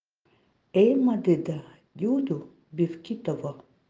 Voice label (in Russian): очаг по эвенкийски